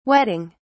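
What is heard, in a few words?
"wetting", British English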